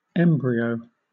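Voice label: "embryo", British English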